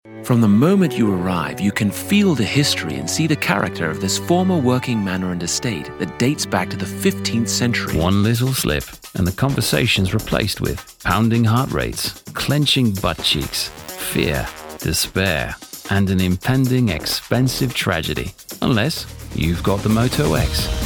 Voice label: british